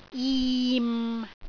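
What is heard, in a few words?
"jim6", Cantonese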